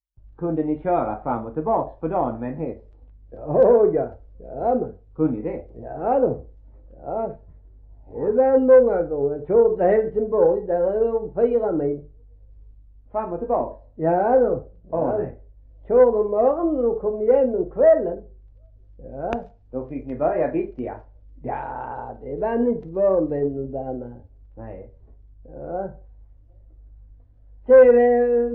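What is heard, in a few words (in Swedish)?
Gråmanstorp Skåne, dialekter; Folkminnen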